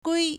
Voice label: "gui1", Chinese